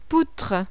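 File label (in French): "POUTRE", French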